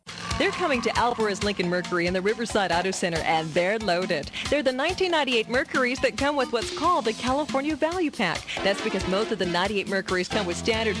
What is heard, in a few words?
Commercial, Female voices